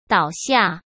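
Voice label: "倒下", Mandarin; chinese flashcards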